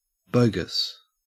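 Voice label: "bogus", Australian English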